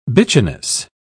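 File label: "bitchiness", British English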